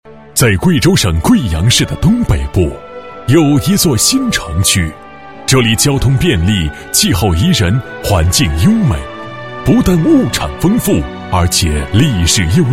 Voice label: 男 解说 形象宣传片; 乌当区形象宣传片

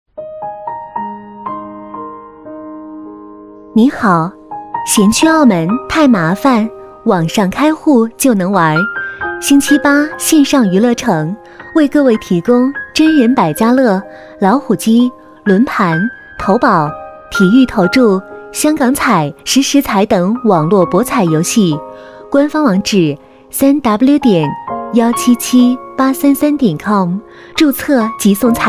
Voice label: 女 彩铃, 国语女声配音, 磁性; 您好!嫌去澳门太麻烦

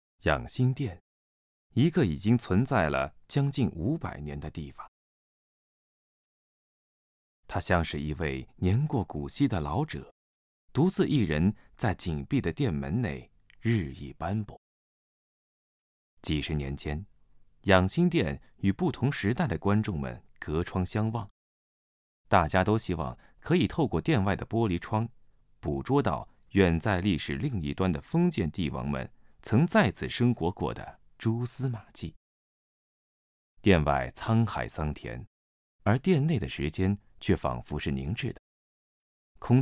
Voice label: 文物纪录片; 故宫新事

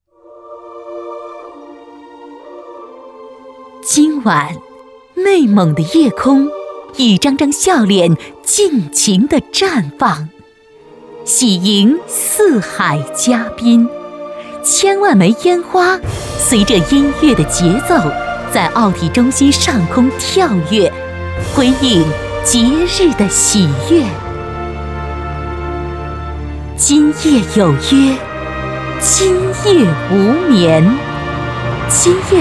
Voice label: 专题 晚会解说 风格 大气 情感, 国语女声配音

